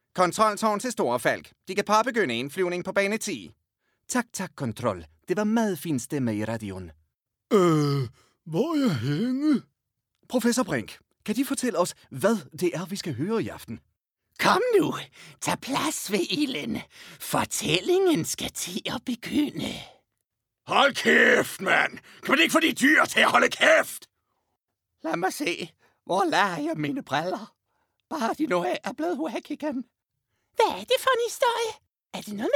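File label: Danish, characters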